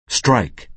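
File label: "strike", American English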